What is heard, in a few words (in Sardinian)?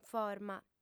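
"forma", female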